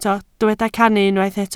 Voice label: "cannu", Welsh